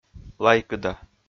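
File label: "лайкыда", Komi, male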